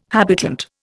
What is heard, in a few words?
"habitant", Indian